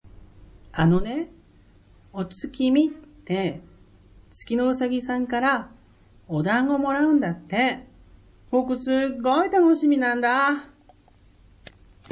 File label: boy